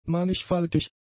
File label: "mannigfaltig", German; adjectives